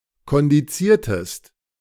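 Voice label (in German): "kondiziertest", German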